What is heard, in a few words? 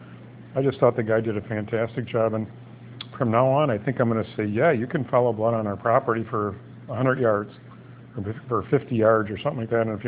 Voice Testimonial